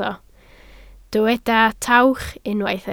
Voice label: "tawch", Welsh